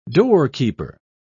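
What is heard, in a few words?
"doorkeeper", British English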